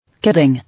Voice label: "Kidding", English